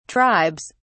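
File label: "tribes", British English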